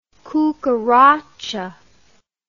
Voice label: "cucaracha", english